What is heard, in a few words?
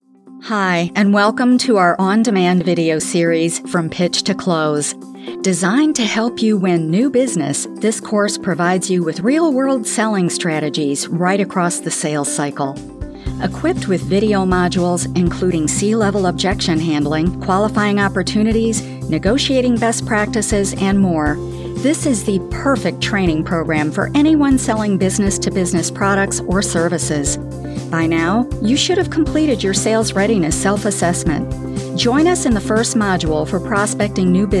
E-Learning; Pitch to Close